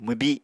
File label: "mwbi", Apatani